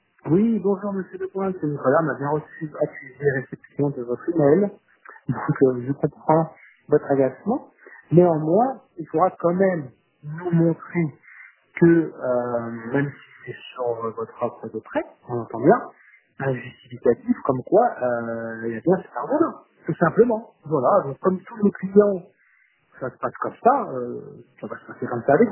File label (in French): voicemail